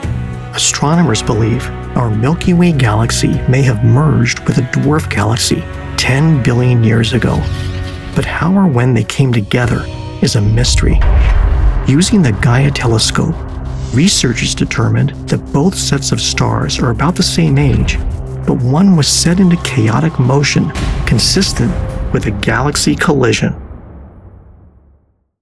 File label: documentary narration